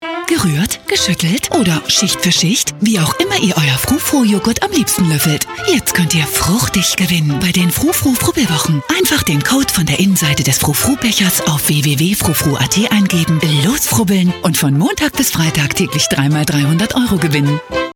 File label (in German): werbung, deutsch frauen; sprecher